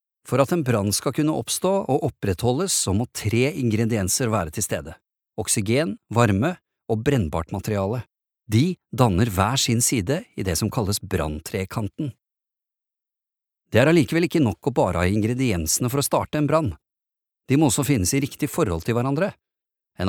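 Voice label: Norwegian e-learning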